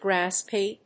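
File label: "grass-pea", English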